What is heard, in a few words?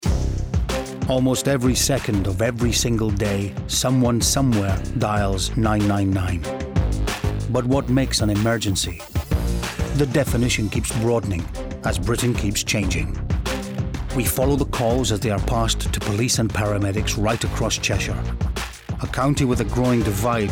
Documentary